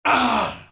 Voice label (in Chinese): pain, zh; Dota 2